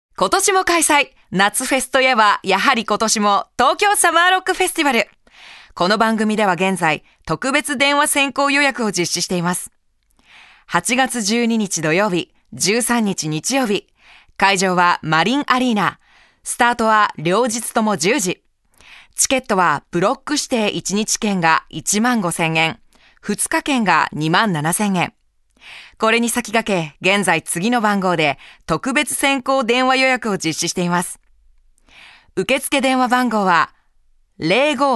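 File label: Narration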